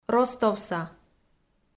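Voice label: "ростовса", Komi, female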